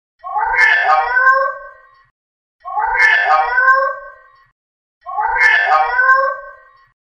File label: EVP child loop